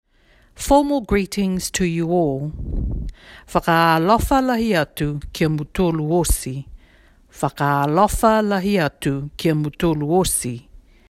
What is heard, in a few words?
Niue formal greetings to all; 2022 Pacific Language Weeks